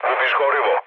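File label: Serbian tank damaged mood low; speech; War Thunder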